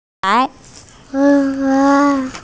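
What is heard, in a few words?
"nv3hai2", Mandarin Chinese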